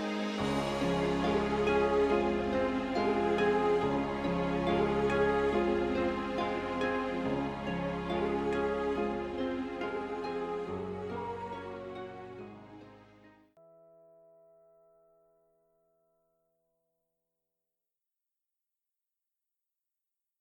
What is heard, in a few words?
Xmas Cheer